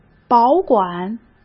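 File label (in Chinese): "保管", Mandarin Chinese; hsk; chinese-flashcards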